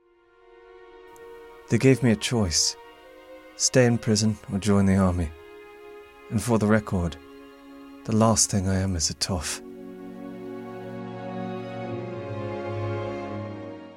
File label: english irish audiobooks